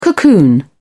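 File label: "cocoon", English, British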